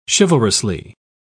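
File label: "chivalrously", English, British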